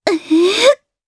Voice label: Vox Casting jp; Yuria; kingsraid